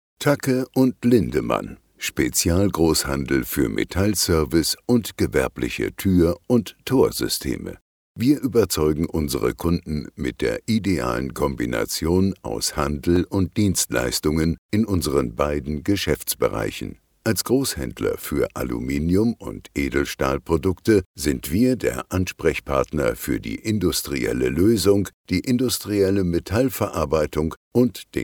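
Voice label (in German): Image seriös Bau; Tacke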